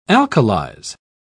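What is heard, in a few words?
"alkalize", British English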